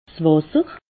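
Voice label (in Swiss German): "wasser", Alsatian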